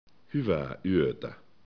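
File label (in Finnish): "yota", Finnish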